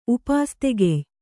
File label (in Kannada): "ಉಪಾಸ್ತಿಗೆಯ್", kannada